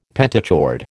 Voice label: "pentachord", American